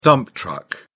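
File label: "dump-truck", British English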